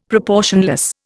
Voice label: "proportionless", Indian; Dictionary